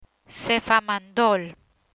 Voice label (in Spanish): "Cefamandol", Spanish; drugs; learn spanish